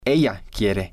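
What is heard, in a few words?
"ella Quiere", Spanish, male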